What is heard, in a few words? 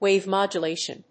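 "wave modulation", English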